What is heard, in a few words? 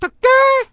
"TURKEY", commentators